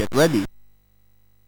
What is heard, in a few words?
Bouncy Balls speech, MOS 6581